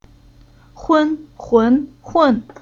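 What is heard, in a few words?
"Hun", Chinese syllable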